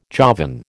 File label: "Javan", American